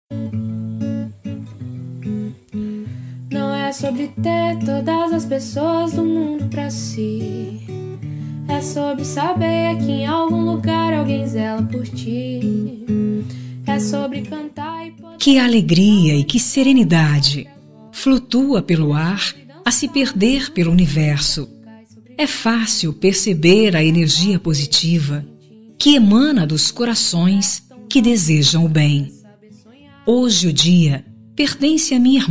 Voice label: ANIV. IRMA, telemensagem aniversario irma

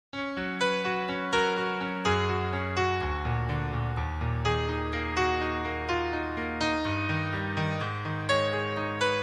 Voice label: Você é linda filha criança, telemensagem dia das mães infantil

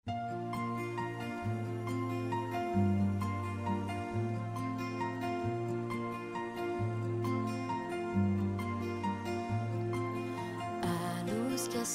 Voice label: aniversario ATRASADO, telemensagem aniversario atrasado familia

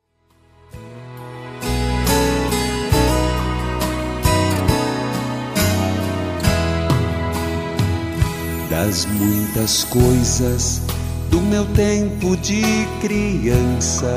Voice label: ANIV RELIGIOSO IRMA MASC, Telemensagem; telemensagem aniversario religioso

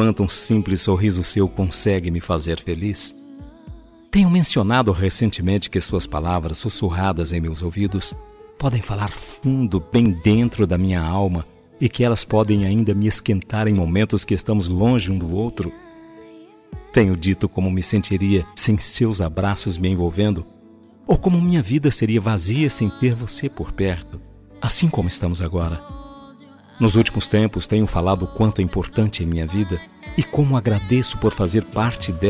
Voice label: ANIV. ESPOSA DISTANTE, telemensagem

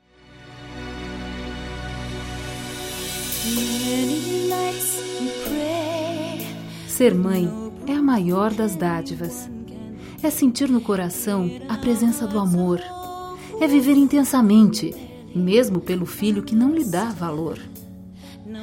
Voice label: Poesia Ser mãe neutro, telemensagem dia das mães neutra

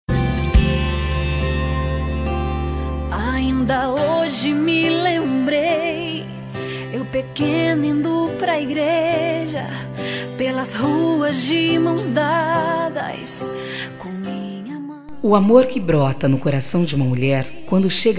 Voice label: MAE EVANGELICO FEM, telemensagem dia das maes evangelica

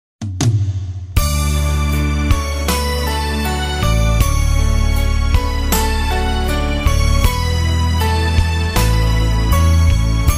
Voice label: aniversario SOBRINHA FEM, telemensagem aniversario sobrinha sobrinho

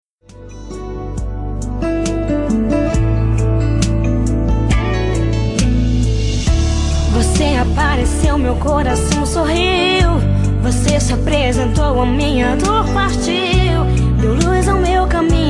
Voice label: ROMANTICA EVANGELICA MASC, telemensagem evangelica romantica

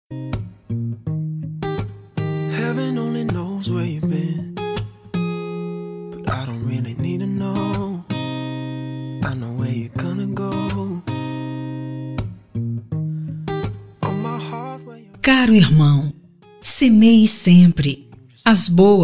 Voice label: ANIV. IRMAO, telemensagem aniversario irmao

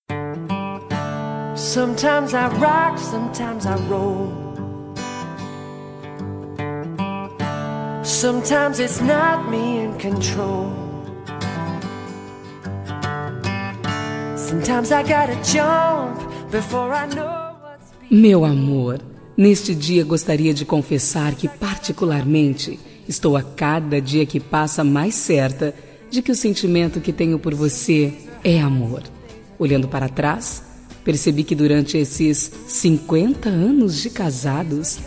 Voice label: Bodas de Ouro Romantica Feminino, telemensagem

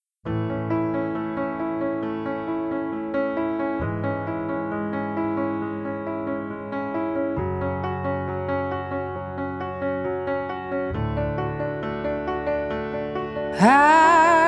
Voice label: ANIV CUNHADA MASC, telemensagem

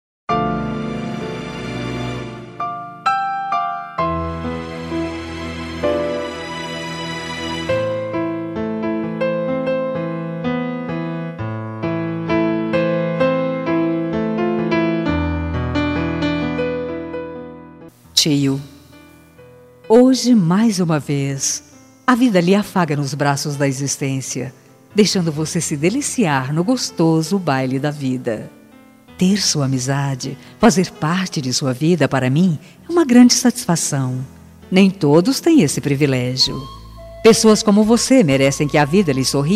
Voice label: aniversario TIO FEM, telemensagem

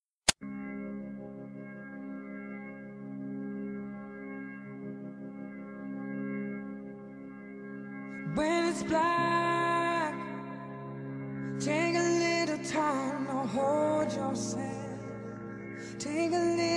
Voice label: Aniversario Padrinho Masculino, telemensagem aniversario

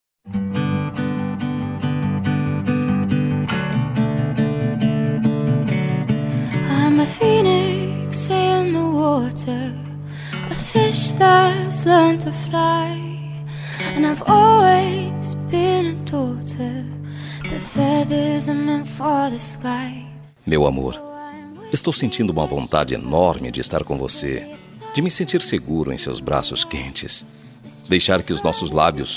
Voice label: ROMANTICA DISTANTE PARA ESPOSA, Telemensagem

